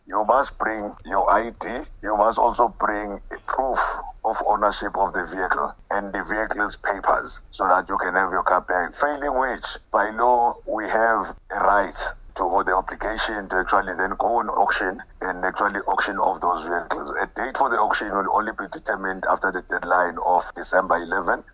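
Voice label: English; Vehicles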